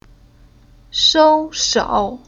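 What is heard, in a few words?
"Shou", Chinese syllable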